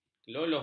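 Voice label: "keloloh", Malay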